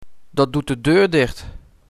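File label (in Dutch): "Dat doet de deur dicht", Dutch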